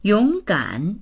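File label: "yong3gan3", Mandarin Chinese; 勇敢; 勇敢な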